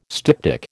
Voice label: "styptic", American